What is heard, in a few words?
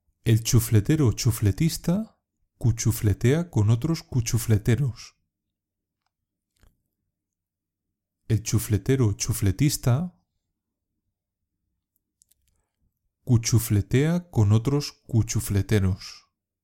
"cuchufletero cuchufletista", Spanish; pronunciation; trabalenguas; improve your spanish pronunciation